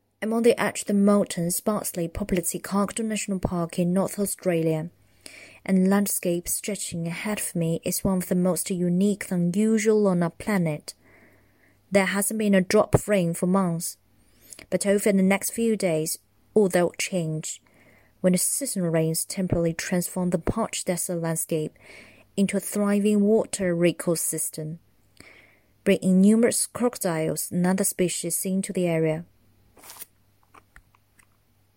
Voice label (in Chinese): 英文 独白